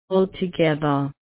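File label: "altogether", Australian English, female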